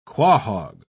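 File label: "quahog", English, British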